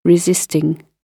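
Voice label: "resisting", British English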